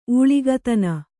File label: "ಊಳಿಗತನ", Kannada